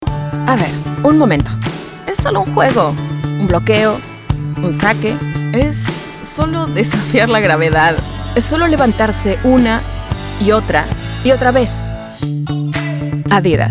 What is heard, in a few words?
spanish commercial; Warm, inviting, and genuinely engaging voice that instantly connects with listeners.